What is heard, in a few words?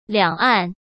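"两岸", Mandarin Chinese; chinese flashcards; hsk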